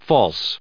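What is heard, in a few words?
"false", US English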